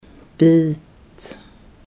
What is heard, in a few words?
"bite" standard